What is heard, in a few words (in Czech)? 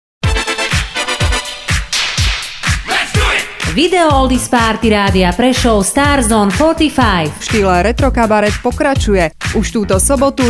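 reklami spot; oldiespartyradiapresov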